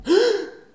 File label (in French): hein surprise